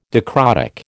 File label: "dicrotic", American